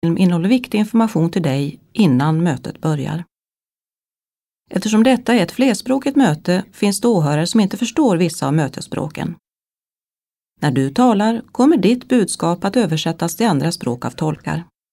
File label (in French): suedois